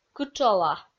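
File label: "кытшола", Komi-Zyrian, female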